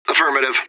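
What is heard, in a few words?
radio bot response positive; fbihrt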